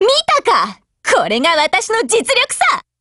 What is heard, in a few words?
WIN JP; MP7